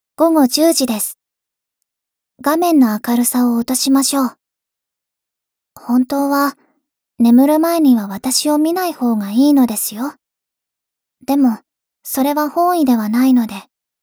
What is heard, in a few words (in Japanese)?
時報ボイス 電脳少女; ボイスコレクション 電脳少女編